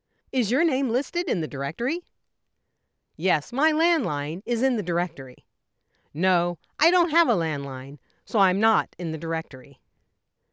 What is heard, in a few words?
Phone Q and A